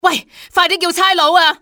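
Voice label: call police, civ hk female; XBOX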